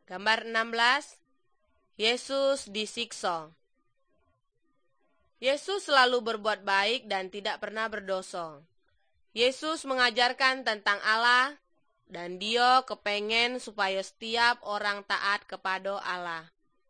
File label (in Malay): good news malay jambi